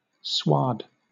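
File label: "sward", British English; pronunciations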